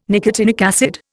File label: "nicotinic acid", Indian